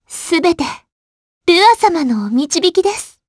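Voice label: Vox Victory, Japanese; Frey; kingsraid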